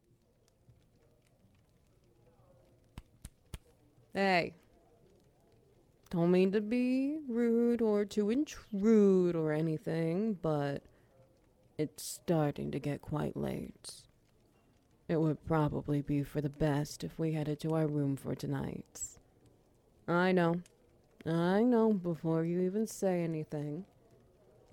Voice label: Ambience SFX Wet Noises

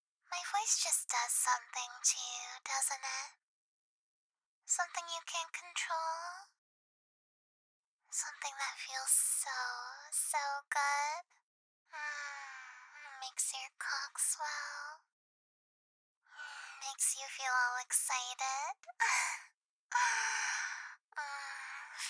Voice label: voicemail

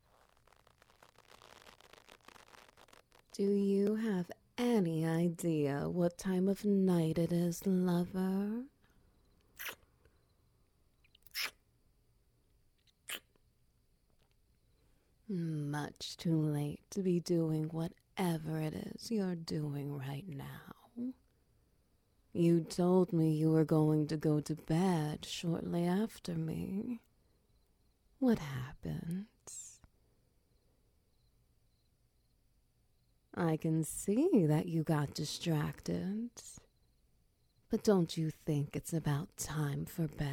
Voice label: Ambience SFX Wet Noises; Drider Girlfriend Helps with Exhaustation

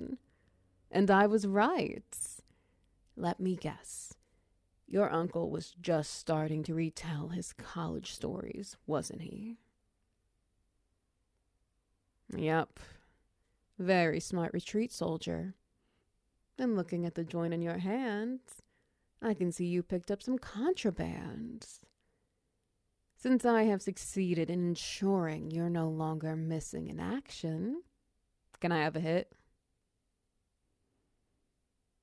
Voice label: Garden Party SFX Wet Noises

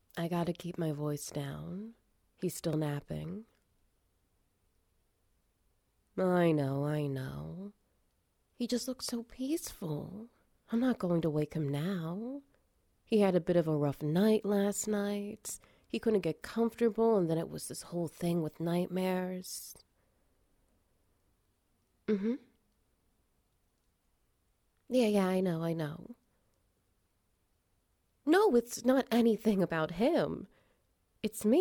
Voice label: F4M Ambience SFX Wet Noises; Foster Fail

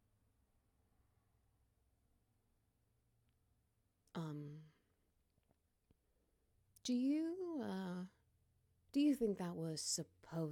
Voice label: Trapped in an Elevator Noise Suppressed

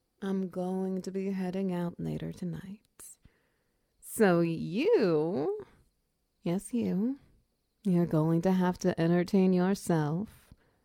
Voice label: Ambience Wet Noises; Sage pt. 3; Cancelled Game Night

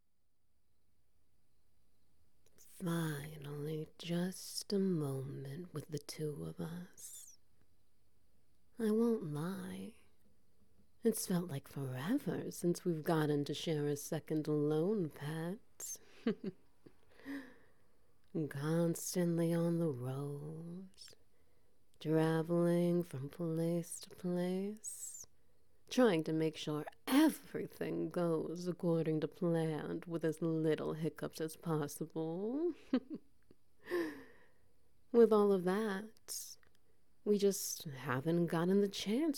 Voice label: Ambience Tentacles Wet Noises; A Mind Flayer's Confession

